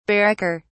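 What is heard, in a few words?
"barracker", British English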